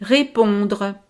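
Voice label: "repondre", French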